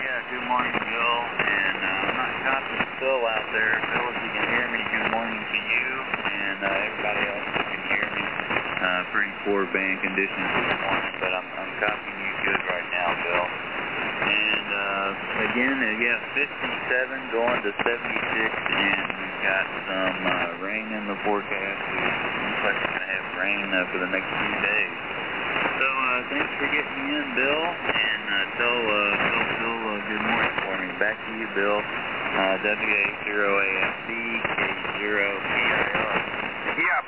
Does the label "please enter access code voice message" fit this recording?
No